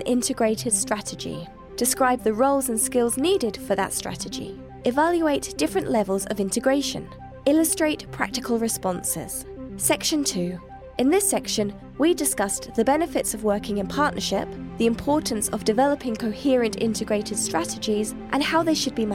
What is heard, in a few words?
F UK English E-Learning